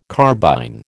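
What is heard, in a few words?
"carbine", American English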